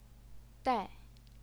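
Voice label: "dai", fourth tone, Chinese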